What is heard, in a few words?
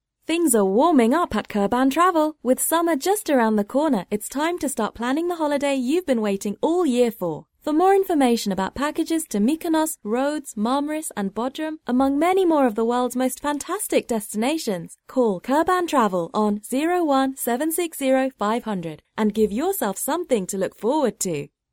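British English, female, voice over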